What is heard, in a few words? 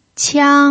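"qiang", pinyin, tone 1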